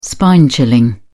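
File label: "spine chilling", British English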